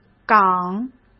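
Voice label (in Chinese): "港", Mandarin Chinese; chinese flashcards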